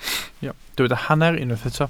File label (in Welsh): "hanner", Welsh